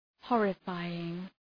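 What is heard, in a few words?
"horrifying", English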